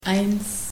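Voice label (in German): "eins", German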